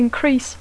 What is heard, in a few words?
"increase", English